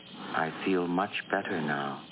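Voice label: "Much better", speech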